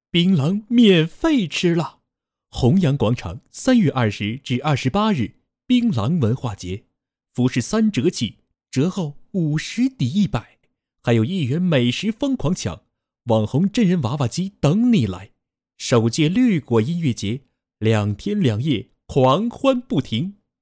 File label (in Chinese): 宣传广告槟榔